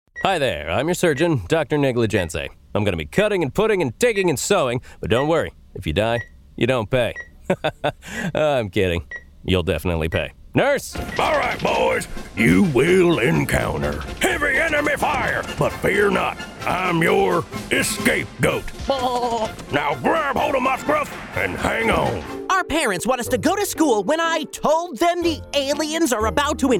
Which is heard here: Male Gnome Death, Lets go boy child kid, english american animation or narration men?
english american animation